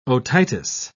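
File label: "otitis", British English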